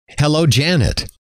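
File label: "hello", male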